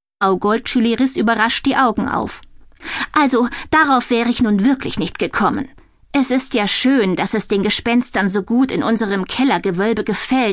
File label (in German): Hörbuch, frauen; sprecher; 5 Sterne Spuk